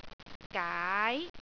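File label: "gaai2", Cantonese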